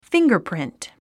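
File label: "fingerprint", English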